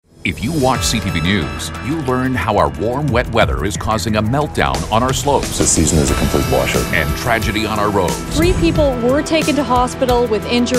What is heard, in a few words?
TV Promos; BUITENLANDSE VOICEOVER; stemacteur